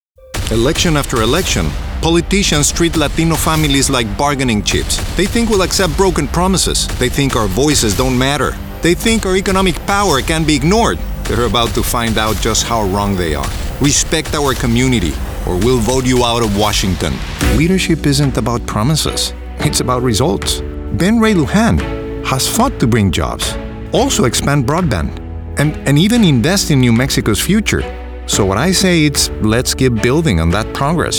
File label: English Political; Political Demo